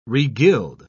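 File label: "regild", British English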